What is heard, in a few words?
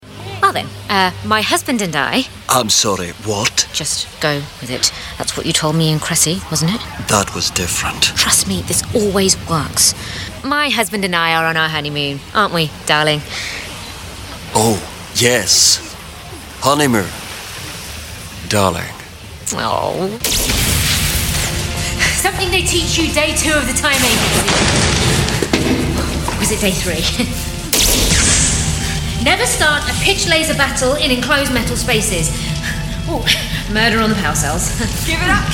audio drama, British, Urban British and Indian accents